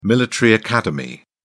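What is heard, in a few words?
"military academy", British English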